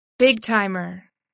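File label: "big-timer", English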